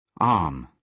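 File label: "arm", British English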